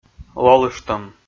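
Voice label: "лолыштӧм", Komi, male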